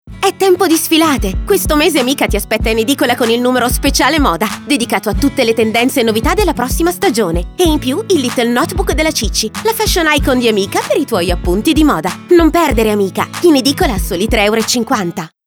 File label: Spot; Amica